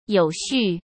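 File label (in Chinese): "有序", Mandarin Chinese; chinese flashcards; hsk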